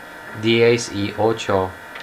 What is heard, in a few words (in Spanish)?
"diez y ocho", spanish